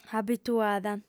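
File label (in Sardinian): "abituada", lingua sarda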